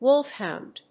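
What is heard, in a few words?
"wolfhound", English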